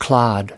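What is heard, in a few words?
"clod", US English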